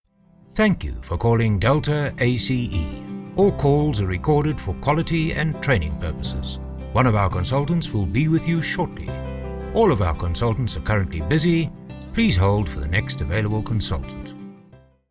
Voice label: IVR sample